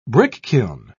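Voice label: "brickkiln", English, British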